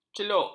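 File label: "celuk", Malay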